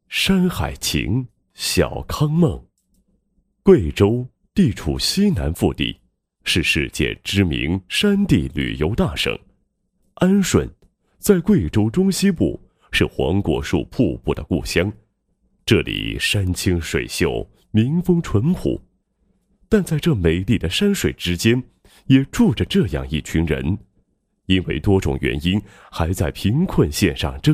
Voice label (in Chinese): 男, 地区宣传; 安顺黄果树瀑布的故乡